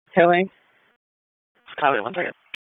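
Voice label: Police Southwest District Dispatch, p25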